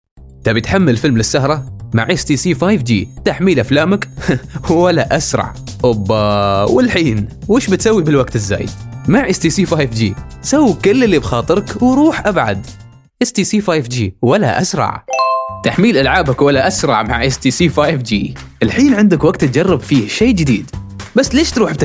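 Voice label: Arabic, Commercial, Saudi Accent; GoLocalise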